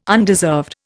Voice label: "undeserved", Indian